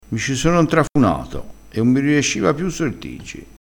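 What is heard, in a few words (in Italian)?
"intrafunassi", crespinese dialettale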